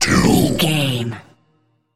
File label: announcer voice classic fps style two